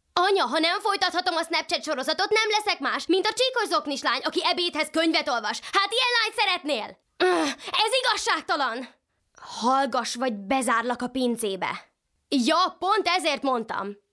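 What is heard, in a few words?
hungarian female character; voice demos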